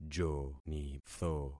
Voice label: "jonitho", concat syllables; Affixing experiment